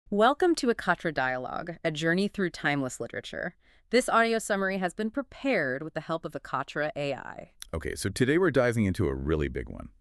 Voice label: English; The Wind-Up Bird Chronicle